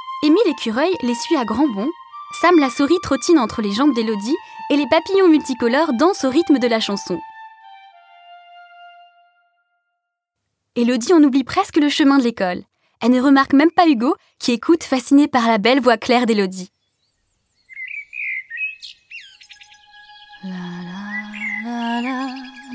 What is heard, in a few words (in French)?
Livre audio enfants narration chant